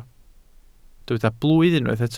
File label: "blwydd", welsh